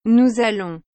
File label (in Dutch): "nous allons", frans; aller